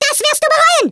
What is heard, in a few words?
YOU'LL REGRET THAT, Speech, German; Worms Armageddon